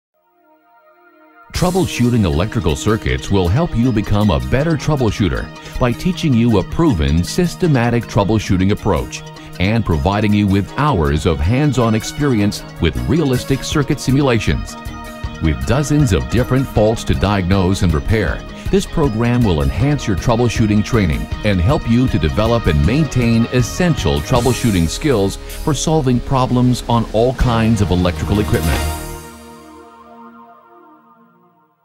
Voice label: Video Tutorial; Profi Publikum; NATIVE PROFISPRECHER